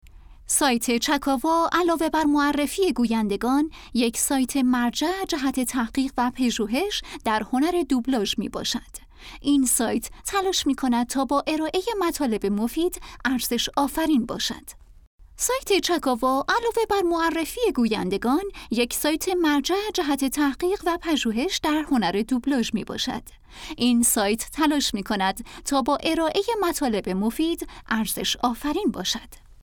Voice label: documentary, female narrators, english